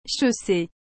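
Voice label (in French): "chausser", French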